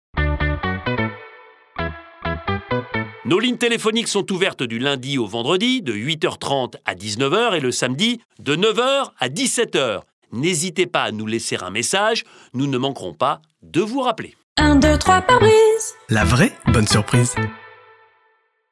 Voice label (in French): repondeur; 123 PAREBRISE